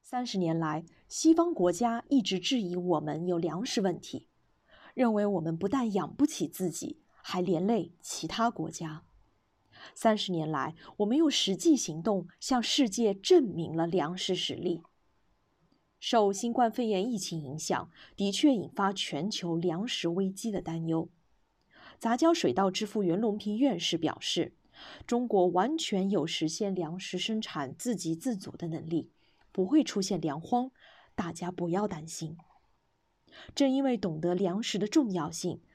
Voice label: 温婉大气

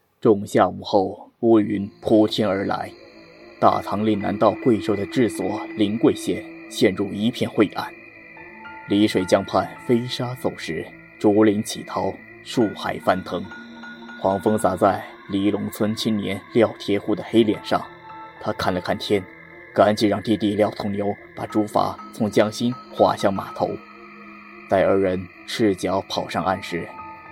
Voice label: 有声书 录制

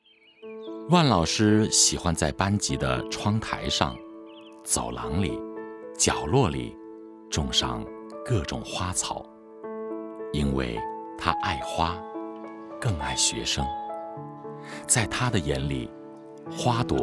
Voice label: 纪录片; 纪录片生长的力量

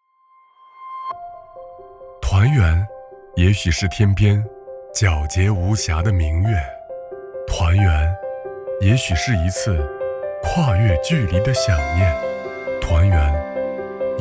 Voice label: 广告走心温暖; 安顿健康手表

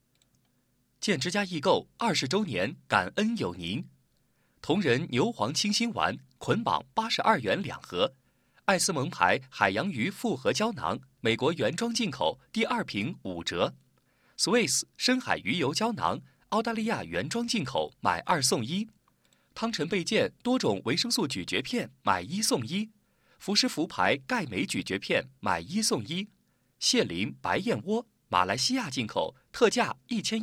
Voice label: 广告类

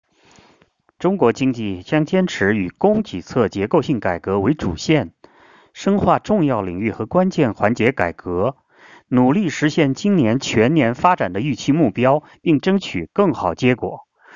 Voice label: 新闻类中文

